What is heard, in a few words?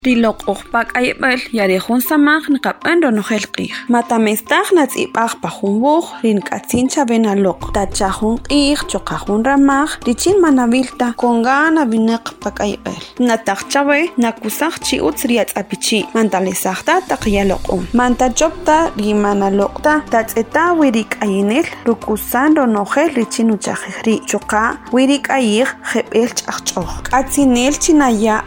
SPOT KAQCHIKEL COVID-19